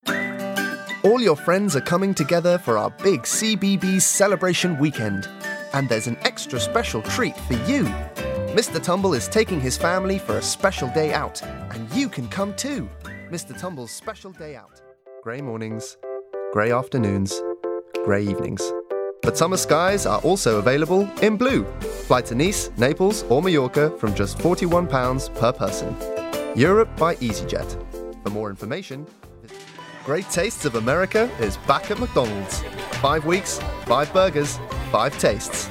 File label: Commercials